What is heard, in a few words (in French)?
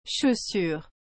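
"chaussure", French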